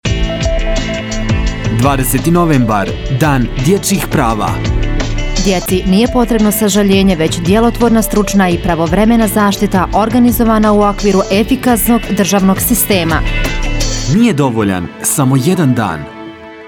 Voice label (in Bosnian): Reklama; Dan djecijih prava